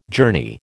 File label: "journey", American